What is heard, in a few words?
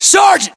rank sergeant, US; localized english; battlechatter